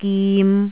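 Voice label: "gim3", Jyutping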